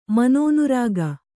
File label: "ಮನೋನುರಾಗ", Kannada